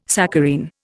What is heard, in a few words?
"saccharine", Indian